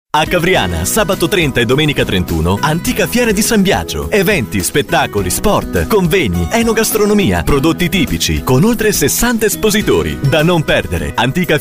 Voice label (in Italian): Spot